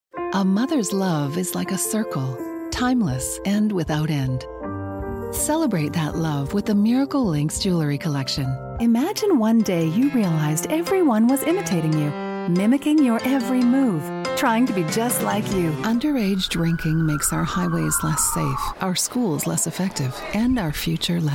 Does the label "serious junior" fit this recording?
No